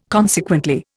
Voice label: "consequently", Indian

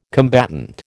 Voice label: "combatant", American

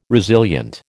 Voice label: "resilient", American

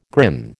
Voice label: "grin", American

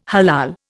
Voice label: "halal", Indian

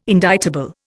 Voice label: "indictable", Indian

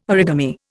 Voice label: "origami", Indian

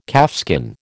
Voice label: "calfskin", American

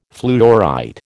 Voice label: "fluorite", American

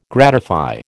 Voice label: "gratify", American

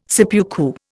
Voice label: "seppuku", Indian

